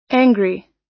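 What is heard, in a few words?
"angry", American English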